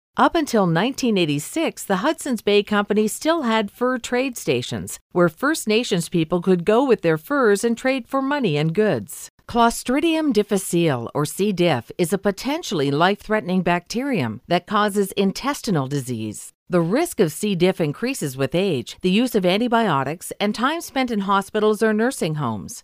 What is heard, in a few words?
EDUCATIONAL